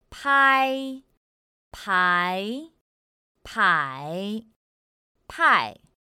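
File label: pāi pái pǎi pài, Mandarin Chinese; pinyin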